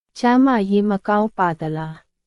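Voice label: Myanmar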